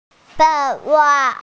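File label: "bai2yun2", Mandarin Chinese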